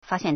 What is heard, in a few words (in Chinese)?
"fa1xian4", Chinese